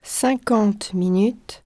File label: french 50 min; samsung YP-U5; Audio User Interface